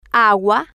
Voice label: "agua", spanish

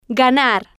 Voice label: "ganar", Spanish

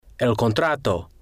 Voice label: "el Contrato", Spanish

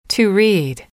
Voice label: "to Read", english

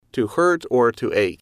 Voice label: to hurt ache, English

